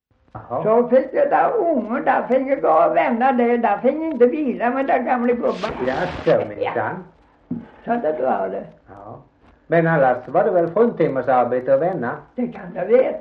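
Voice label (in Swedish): Gunnarp Halland, dialekter svenska sagor; Folkminnen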